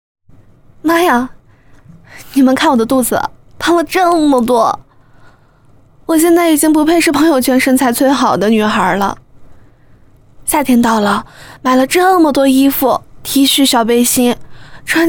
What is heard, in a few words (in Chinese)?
素人风健身独白